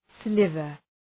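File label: "sliver", English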